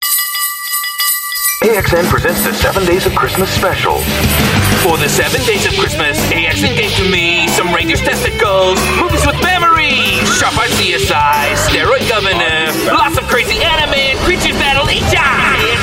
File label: US English; 7Days Xmas